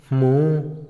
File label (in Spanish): amo, Otomí, hombre; Sociales; Vocabulary; INALI